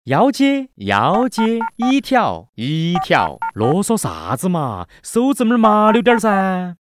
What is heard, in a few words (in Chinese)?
男 棋牌游戏